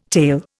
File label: "tale", Indian